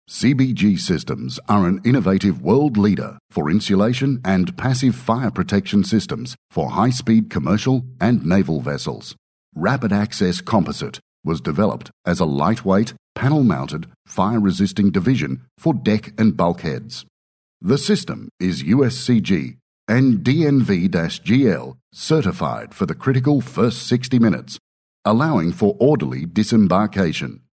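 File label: English, Australian, male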